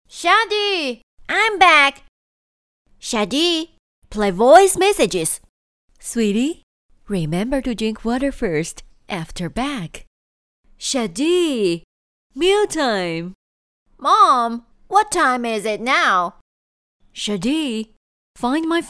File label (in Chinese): 女 英语角色 多人英文